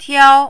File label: "tiao1", Mandarin